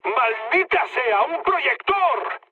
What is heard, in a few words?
searchlite, mood low, speech; War Thunder